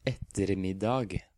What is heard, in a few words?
"Ettermiddag", Norwegian